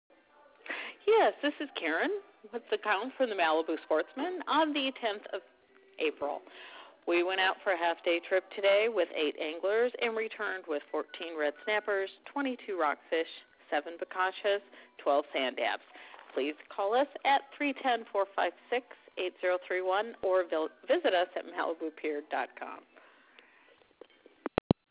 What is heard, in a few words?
voice message